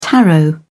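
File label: "taro", British English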